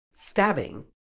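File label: "stabbing", British English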